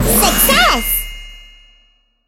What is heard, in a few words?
success en